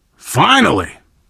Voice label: safe spot ahead reaction, survivor voice biker